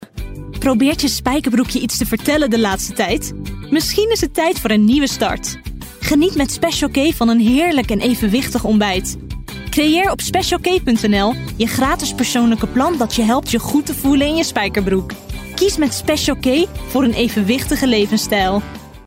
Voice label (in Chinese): 荷兰语女 外语 小语种 时尚